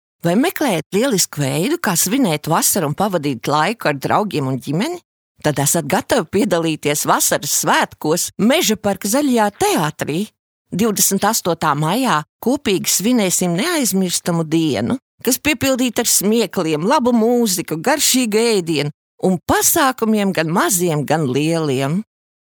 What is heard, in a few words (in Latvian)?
Reklāma